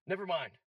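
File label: "never mind", game voice; warcraft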